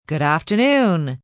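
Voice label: News Good Afternoon F, Broadcast, announcer, greetings, female; greetings/wrap-ups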